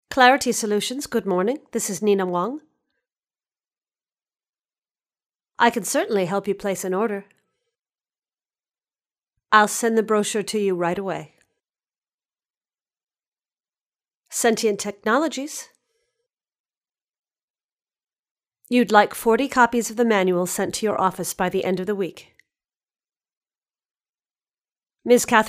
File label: Female voice